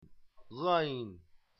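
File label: "ghayn", Arabic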